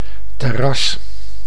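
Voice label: "terras", Dutch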